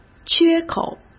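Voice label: "缺口", Mandarin Chinese; hsk; chinese flashcards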